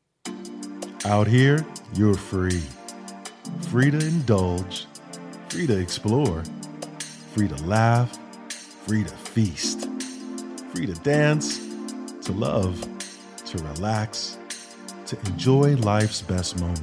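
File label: US English commercial; GoLocalise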